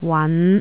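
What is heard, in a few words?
"wan5", Cantonese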